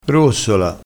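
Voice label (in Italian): "rossola", dialetto crespinese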